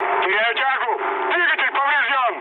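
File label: Russian damaged engine mood med, speech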